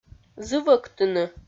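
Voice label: "зывӧктыны", Komi, female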